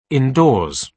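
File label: "indoors", British English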